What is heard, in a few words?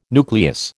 "nucleus", American